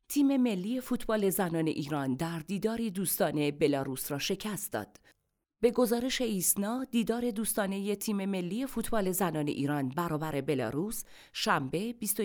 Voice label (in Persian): نمونه صدای سوم خبر